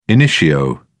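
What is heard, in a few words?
"initio", British English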